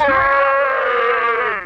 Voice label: "yay", slow